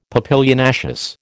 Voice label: "papilionaceous", American

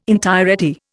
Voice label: "entirety", Indian

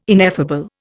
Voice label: "ineffable", Indian

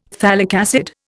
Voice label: "phthalic acid", Indian; Dictionary

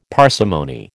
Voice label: "parsimony", American

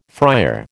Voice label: "fryer", American

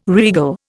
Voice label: "regal", Indian

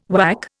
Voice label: "WAC", Indian

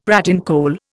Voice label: "pratincole", Indian; Dictionary

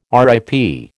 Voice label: "RIP", American

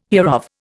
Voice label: "hereof", Indian

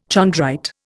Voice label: "chondrite", Indian

